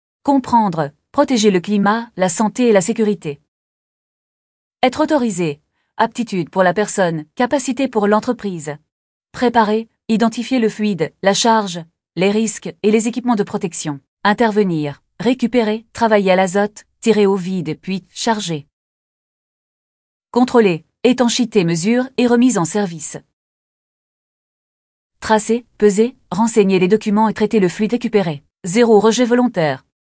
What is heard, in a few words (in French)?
narration; fil conducteur; fluides